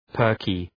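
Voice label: "perky", English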